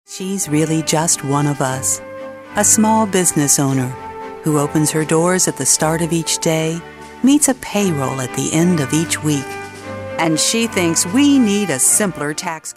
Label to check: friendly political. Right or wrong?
Right